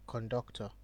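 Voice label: "conductor", Nigerian Pidgin, male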